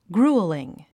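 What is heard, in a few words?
"grueling", English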